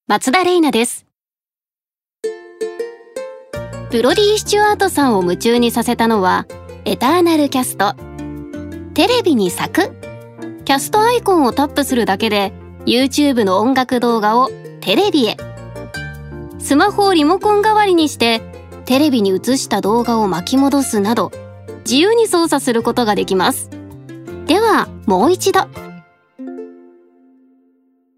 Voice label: ナチュラル

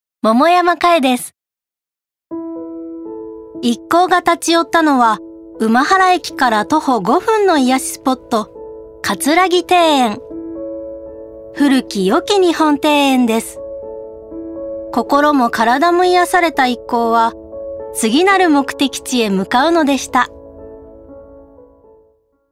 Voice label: ノーマル

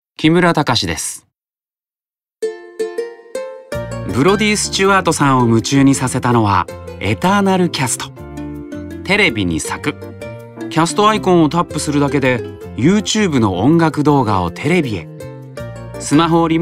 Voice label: ナチュラル

